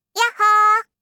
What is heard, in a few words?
"yahhoo", voice; aisatsu